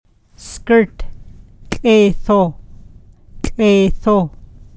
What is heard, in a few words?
Gwichin ejective; Phonetics